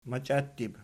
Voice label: "machiattib", Archi

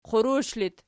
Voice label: "qurush", Archi

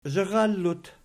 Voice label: "zharallut", Archi

